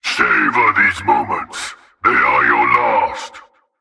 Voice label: Male Alert Line; NPC; Heist Cultist Spotted